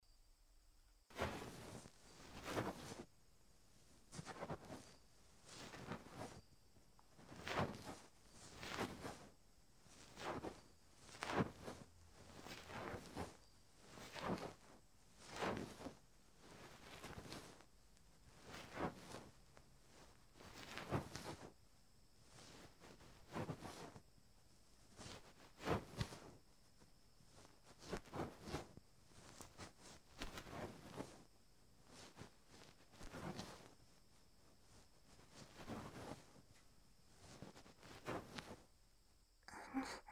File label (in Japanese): 囁きながら耳かき 右, ASMR